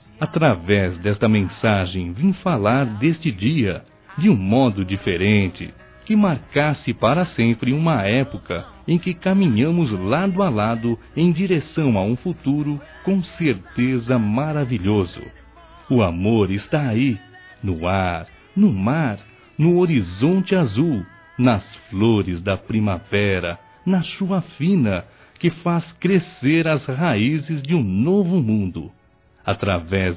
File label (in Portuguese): Pedido de Noivado - Masc; Telemensagens; Telemensagens 2017